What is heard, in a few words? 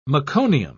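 "meconium", English, British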